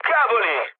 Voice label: trick, mood med, speech it; War Thunder

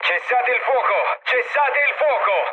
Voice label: player friendly fire, mood low, speech it; War Thunder

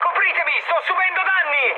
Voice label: aircraft damaged, mood high, speech it

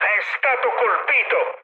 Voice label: aircraft destroyed, mood med, speech it

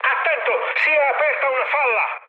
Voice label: damaged water, mood high, speech it; War Thunder

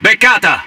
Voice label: Speech Hit Confirmation, IT; World Of Warships

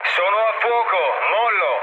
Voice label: on fire, mood low, speech it; War Thunder

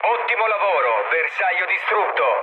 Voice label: bomb success, mood low, speech it; War Thunder

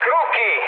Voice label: enemy detected, mood med, speech it; War Thunder

